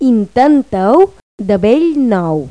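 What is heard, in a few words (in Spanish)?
"intentalo", Spanish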